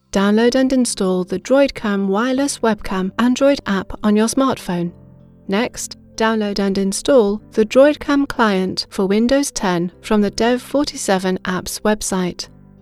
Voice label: E-Learning; Demo Reel